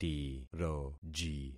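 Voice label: "tiroji", concatenated syllables; Affixing experiment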